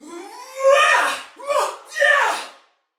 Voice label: Female; Sinecure